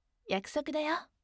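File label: voice yakusokudayo firm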